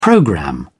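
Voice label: "programme", British English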